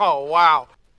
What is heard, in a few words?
"oh wow", voice; ooh ahh